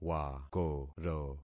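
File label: "wakoro", concatenated syllables; Affixing experiment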